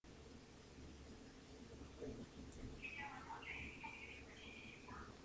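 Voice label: "lead", spoken word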